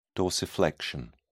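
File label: "dorsiflexion", British English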